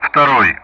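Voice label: Speech Russian; Common Player Indexes 2nd; DCS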